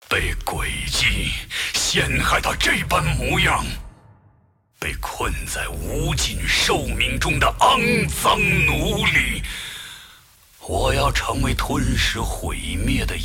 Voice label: move, zh; Aatrox